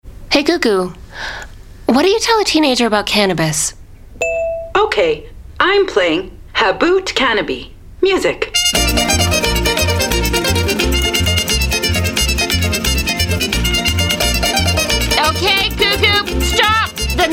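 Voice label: English Voice Assistant Radio; Drug Free Kids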